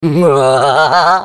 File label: Defective Turret defect laugh, fr; sp sabotage factory